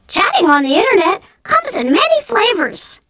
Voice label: chat

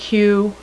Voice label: Q FEMALE, ALPHABET

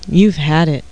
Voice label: TEASE

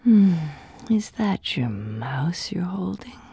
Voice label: mmmmous, phrases sultry; Wired for Sound Pro CD; Aristosoft, Inc.

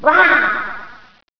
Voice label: GIRL

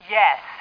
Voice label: female yes; Tribes Demo; Starsiege

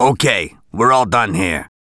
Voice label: Unload, English, Male; gangsters

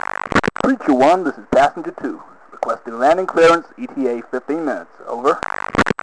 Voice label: chat

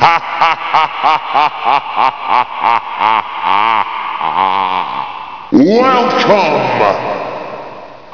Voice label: Demon Welcome; HackUser

